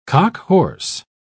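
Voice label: "cockhorse", British English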